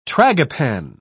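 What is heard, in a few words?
"tragopan", British English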